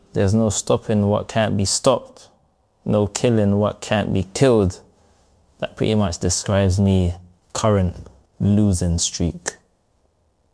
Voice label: upset; fertig classic games